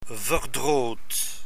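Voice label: "verdroot", Dutch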